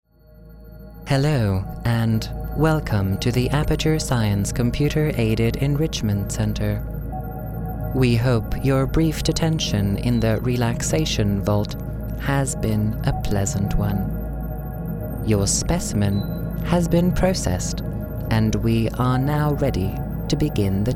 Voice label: Robot Unprocessed